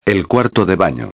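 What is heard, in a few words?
"el cuarto de bano", Spanish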